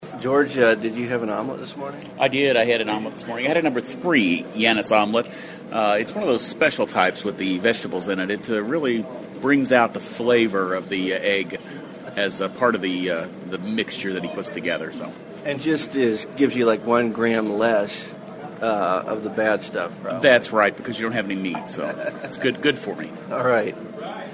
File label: iphone built in mic; farm podcaster tools; iPhone built in microphone